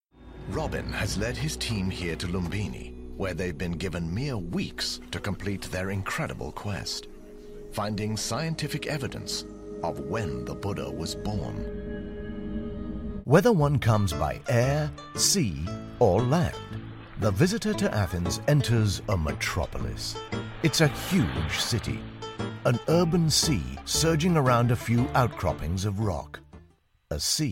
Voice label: narration